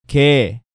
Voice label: "gae", Korean